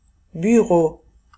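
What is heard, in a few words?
"bureau", French; famille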